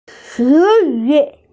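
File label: "words", Mandarin, hearing-impaired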